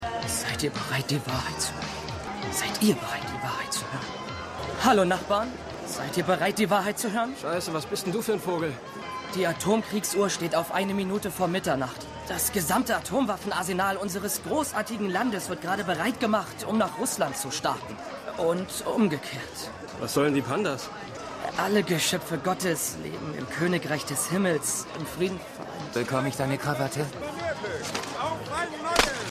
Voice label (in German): Marktschreier; Stimmproben; Wade Tillman; Watchmen